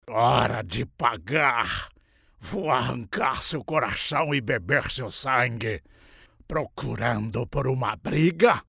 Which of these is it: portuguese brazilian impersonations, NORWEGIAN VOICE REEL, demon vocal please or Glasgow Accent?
portuguese brazilian impersonations